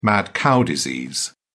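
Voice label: "mad cow disease", British English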